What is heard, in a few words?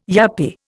"yuppy", Indian